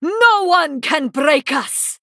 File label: Female Draenei; DESPERATE MEASURES